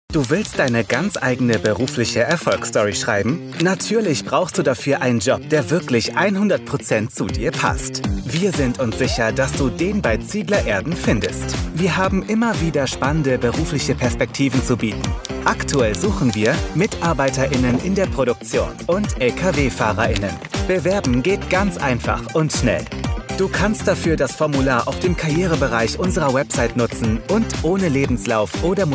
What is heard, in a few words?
german voice commercial job opportunities